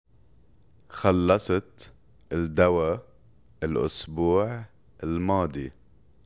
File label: Past tense Arabic